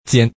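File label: "chiên", Hakka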